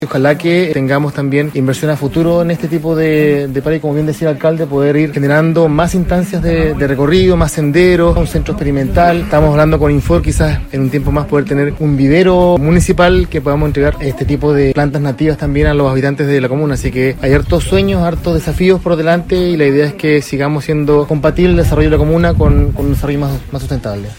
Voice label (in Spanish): cuña; bosque experimental